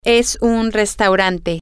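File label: it is a restaurant, spanish, female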